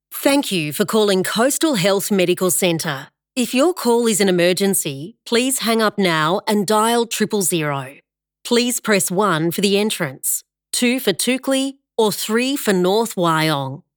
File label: Phone Medical Centre Welcome Greeting